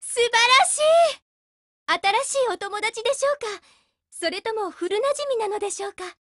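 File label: BUILD OVER Japanese, Voice; GF Alarm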